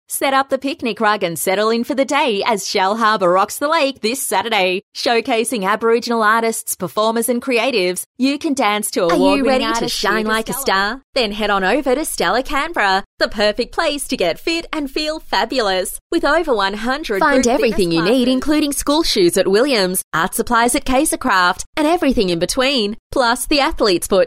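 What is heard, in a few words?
Hard Sell, voice overs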